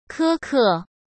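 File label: "苛刻", Mandarin Chinese; chinese-flashcards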